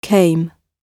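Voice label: "came", British English; Oxford Audio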